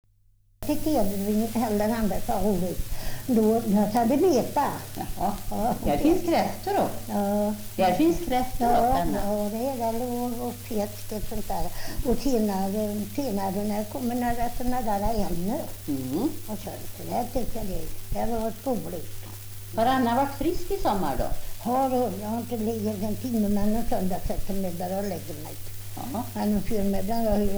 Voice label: Svenska sagor, dialekter; Folkminnen

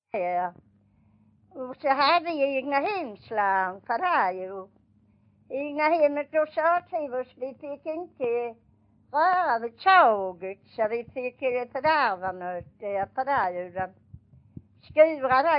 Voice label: Vånga, dialekter; Folkminnen; Svenska sagor

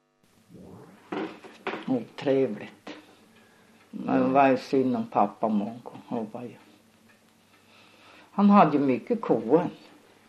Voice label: dialekter; Folkminnen; Svenska sagor

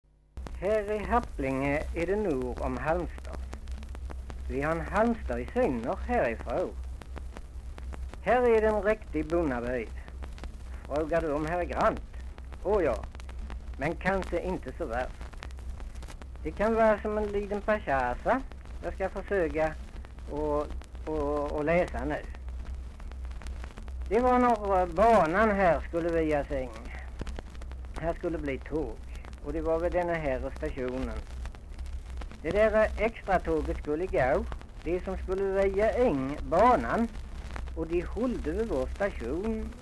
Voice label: Svenska sagor, dialekter; Folkminnen

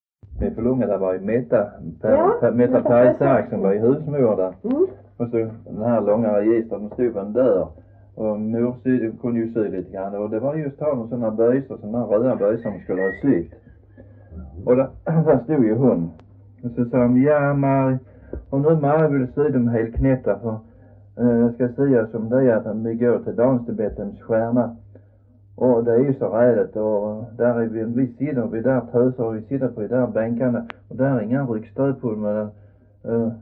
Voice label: Röddinge SK, dialekter; Folkminnen; Svenska sagor

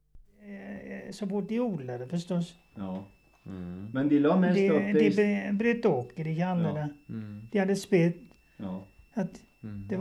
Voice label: Svenska sagor, dialekter; Folkminnen

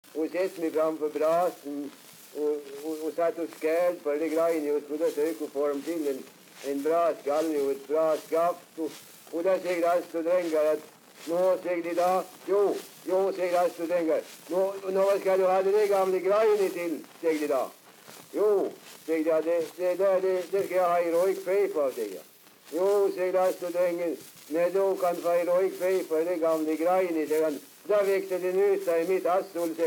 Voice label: dialekter; Folkminnen; Svenska sagor

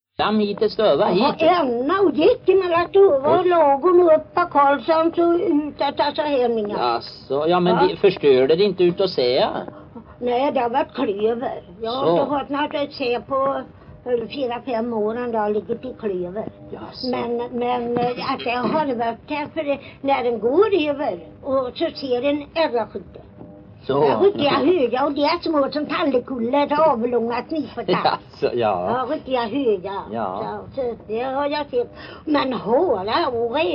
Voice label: Hagelberg, dialekter; Folkminnen

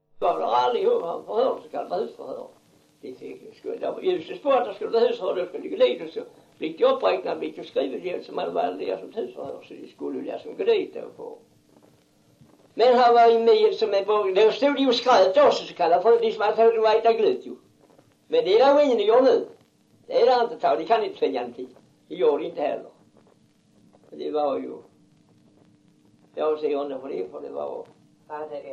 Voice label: dialekter; Folkminnen; Svenska sagor

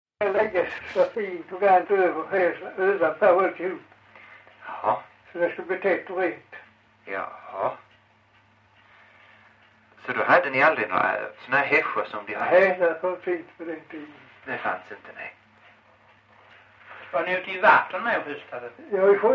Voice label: Farstorp, dialekter, sagor; Folkminnen; Svenska sagor

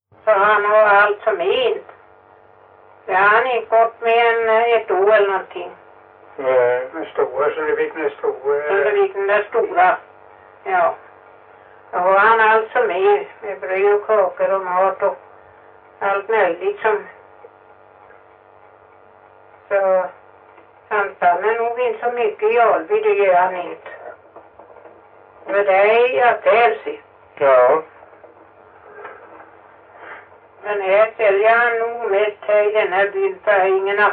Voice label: Stenåsa ÖL, dialekter; Folkminnen; Svenska sagor

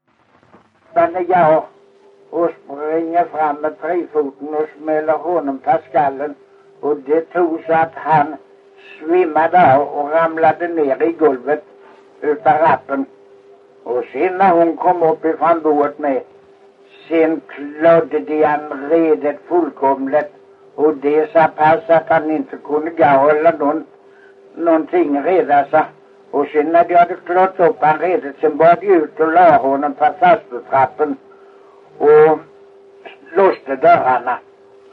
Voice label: Kristianopel, dialekter; Folkminnen

